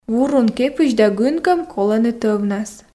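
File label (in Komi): "вурун кепысь да гынкӧм колӧны тӧвнас", Komi, female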